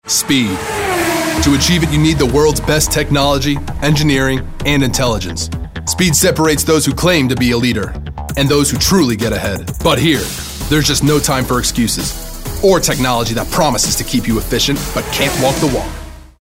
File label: high energy